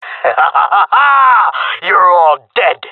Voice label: round winning, male; player vo; Counter Strike Model Pack; CS:GO